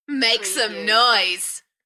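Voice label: British female Make some noise Enthusiastic; beanstalk audio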